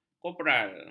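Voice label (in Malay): "koperal", Malay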